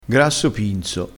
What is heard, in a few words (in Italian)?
"pinzo", dialettale, crespinese